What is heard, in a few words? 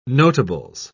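"notables", British English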